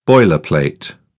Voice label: "boilerplate", British; English